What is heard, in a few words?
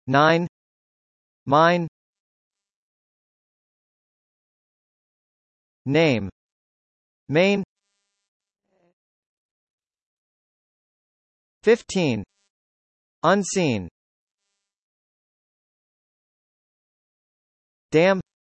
n, US